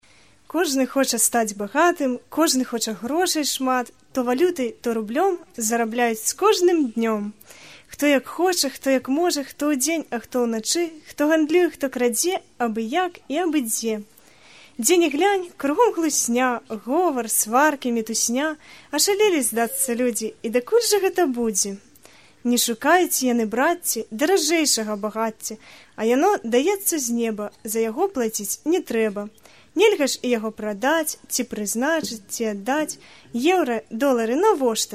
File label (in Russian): Стих на белорусском; М-Лагерь Апшеронск-2010-B